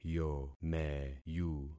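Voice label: "yomeyu", concatenated syllables; Affixing experiment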